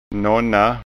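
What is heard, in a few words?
"nonna", Scanian; Några; Nogle; A few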